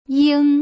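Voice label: "ying", Putonghua; 零聲母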